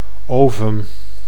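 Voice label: "ovum", Dutch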